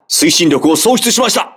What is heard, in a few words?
voice message lost propulsion, crew ships japanese